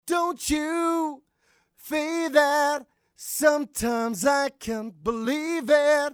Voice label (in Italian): voce -15 percento; time stretching test